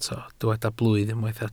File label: "blwydd", welsh